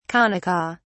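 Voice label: "kanaka", British English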